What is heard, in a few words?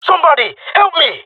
request help, jungle male; player vo; Counter Strike Model Pack; CS:GO